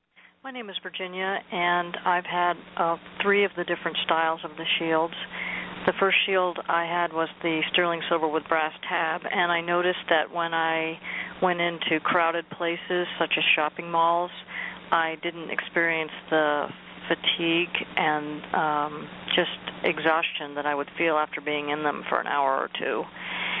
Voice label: Phone call